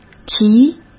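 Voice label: "题", Mandarin Chinese; hsk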